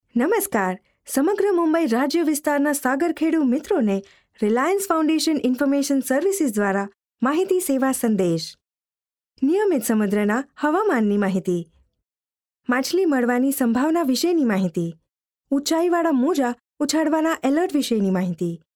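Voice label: Gujarati female voiceover sample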